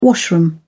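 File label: "washroom", British English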